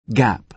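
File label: "gap", American English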